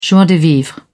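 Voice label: "joie de vivre", British English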